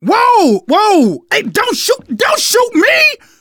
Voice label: friendly fire, manager, survivor, voice

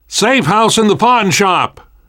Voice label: world hospital, namvet, survivor voice

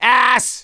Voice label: reaction negative, voice; gambler

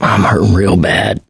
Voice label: going to die, survivor voice mechanic

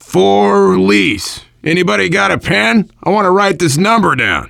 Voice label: for lease, voice, biker; Left 4 Dead 2; left4dead2 dlc3; survivor

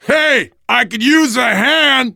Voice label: ledge hang start, voice, biker; Left 4 Dead 2; left4dead2 dlc3; survivor

